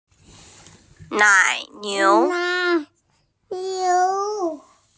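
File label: "nai niu", Mandarin Chinese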